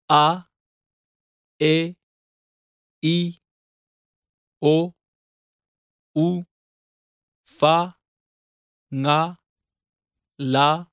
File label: Gagana Sāmoa alphabet male voice